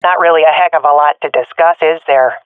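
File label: round lost, swat female; Counter Strike Model Pack; CS:GO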